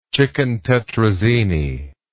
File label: "chicken tetrazzini", English